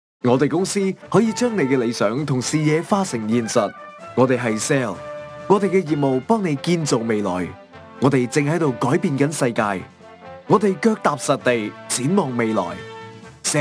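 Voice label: Cantonese Narration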